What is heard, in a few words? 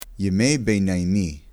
"medieval", Hebrew; medieval times